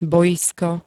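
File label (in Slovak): "bojisko", slovenské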